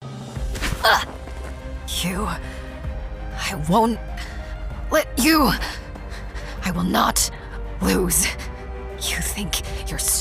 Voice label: voiceover commercial women; Chicago